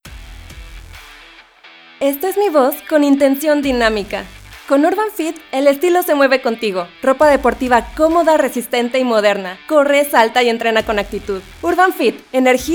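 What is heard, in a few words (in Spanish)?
intension dinamica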